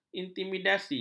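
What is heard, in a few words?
"intimidasi", Malay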